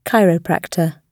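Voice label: "chiropractor", British English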